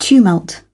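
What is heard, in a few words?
"tumult", British English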